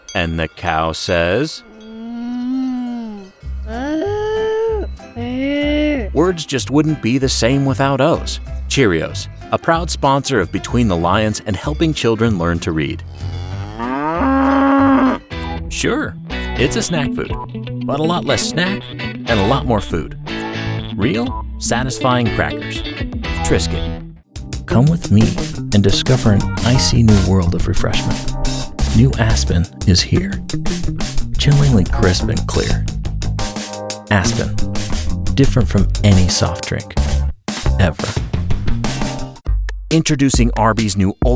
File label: Commercial Voice